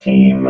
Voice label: "team", robot